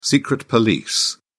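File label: "secret police", British English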